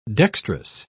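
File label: "dextrous", British English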